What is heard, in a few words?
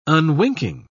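"unwinking", English, British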